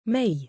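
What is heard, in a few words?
"mayy" female name, Arabic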